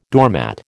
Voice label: "doormat", American

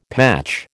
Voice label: "patch", American

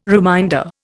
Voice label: "reminder", Indian

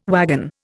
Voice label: "wagon", Indian

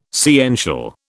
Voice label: "sciential", British

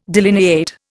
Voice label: "delineate", Indian

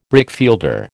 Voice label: "brickfielder", American

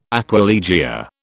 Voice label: "aquilegia", British

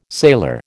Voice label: "sailor", American

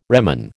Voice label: "reman", American

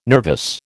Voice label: "nervous", American

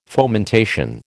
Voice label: "fomentation", American

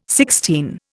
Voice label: "Sixtine", Indian

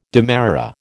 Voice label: "demerara", American

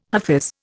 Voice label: "hafiz", Indian

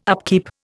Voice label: "upkeep", Indian

